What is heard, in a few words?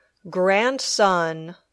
"grandson", English